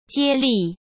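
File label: "接力", Mandarin Chinese; hsk; chinese flashcards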